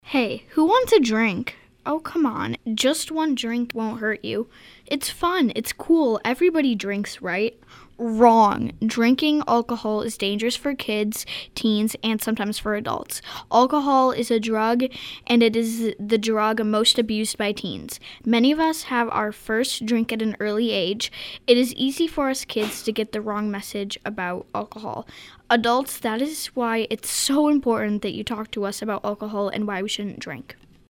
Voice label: Announcement